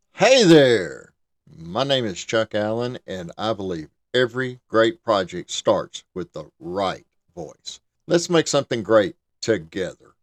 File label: Voice Over